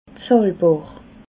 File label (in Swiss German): "zahlbar", alsace